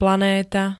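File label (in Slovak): "planéta", Slovak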